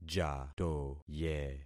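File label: "jatoye", concatenated syllables; Affixing experiment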